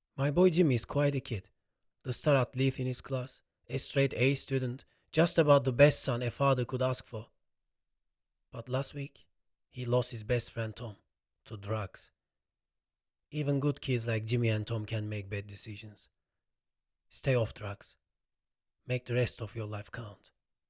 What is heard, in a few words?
Corporate